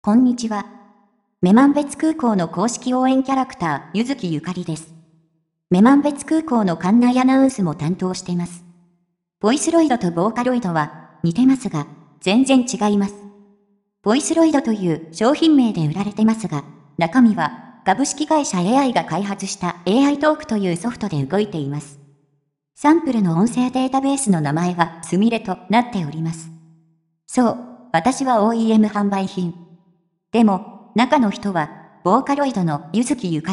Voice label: voiceroid; yukari